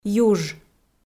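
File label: "юж", Komi, female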